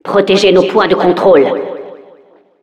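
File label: Announcer defend control points, French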